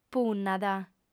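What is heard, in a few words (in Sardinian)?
"punnat", female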